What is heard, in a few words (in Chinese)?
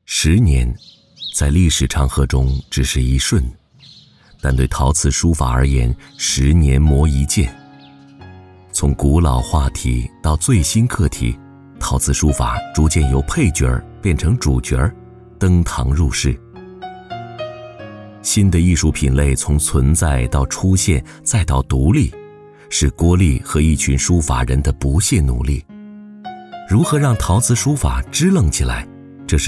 人物叙述; 陶瓷书法